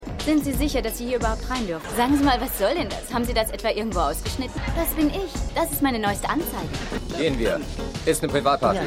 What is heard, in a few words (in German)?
Model Rausschmeisser, Stimmproben; Seinfeld